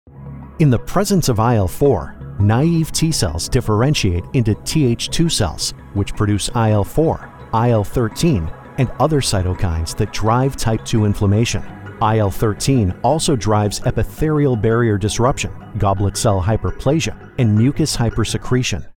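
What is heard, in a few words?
e-learning Medical, American Male Voice; e-learning Voiceover Demo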